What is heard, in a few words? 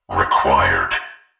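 "required", male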